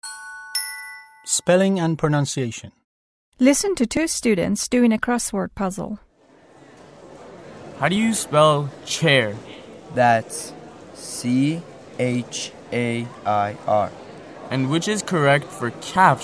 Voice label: english spelling and pronunciation